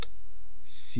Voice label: "sii", East Cree syllabics

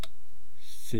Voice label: "si", east cree syllabics

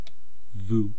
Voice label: "vuu", East Cree syllabics; language

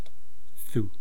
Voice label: "thuu", East Cree syllabics

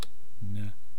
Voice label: "na", East Cree syllabics

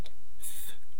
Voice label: "th", east cree syllabics

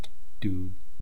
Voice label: "tuu", east cree syllabics

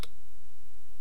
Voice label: "m", east cree syllabics

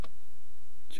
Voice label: "chwaa", East Cree syllabics

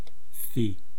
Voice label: "thii", East Cree syllabics

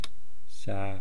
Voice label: "saa", East Cree syllabics

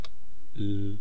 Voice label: "l", East Cree syllabics

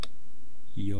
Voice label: "ywaa", East Cree syllabics; language

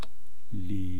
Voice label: "lii", east cree syllabics

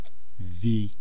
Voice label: "vii", East Cree syllabics; language

